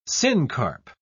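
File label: "syncarp", English, British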